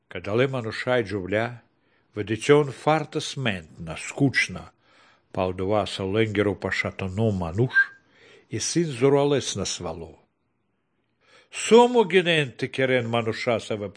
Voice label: good news baltic romani; visagalis dievo zmogus; romani baltic nvs nt drama

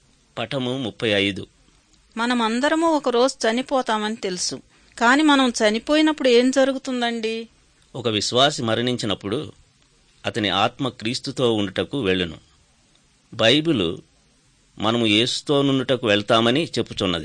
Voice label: good news telugu

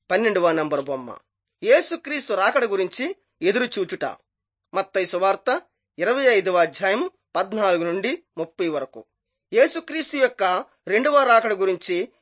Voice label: telugu bangladesh; jesus teacher and healer

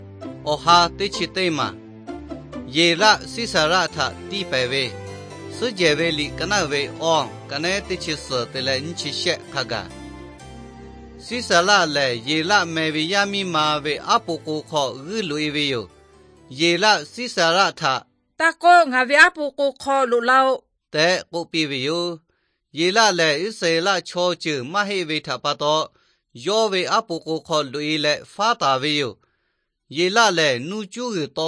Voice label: victory through god lahu na, nt drama

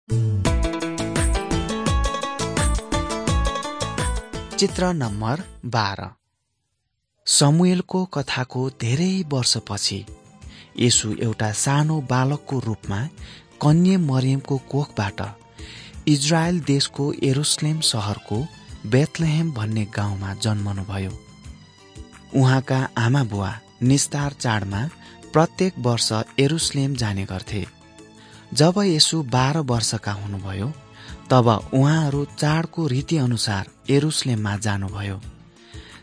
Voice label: servants of god nepali

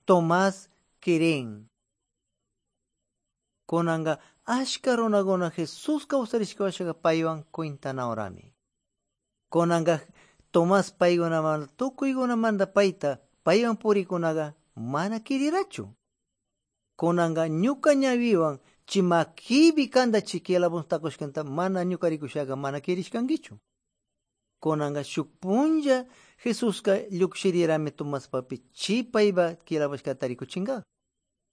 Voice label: good news quichua de pastaza

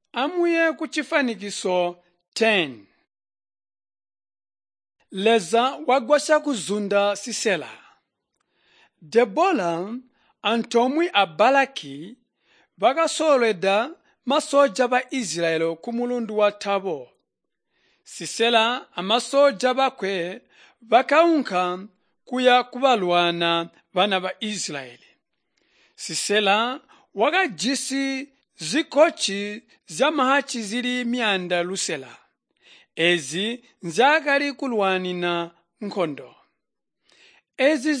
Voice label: tonga plateau, drama; chitonga bsz nt drama

